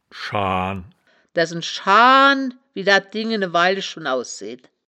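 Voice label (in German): "schaan", wittlicher woerterbuch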